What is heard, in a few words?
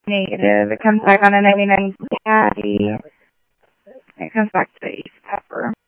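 Mesa Police Department, scanner audio trunk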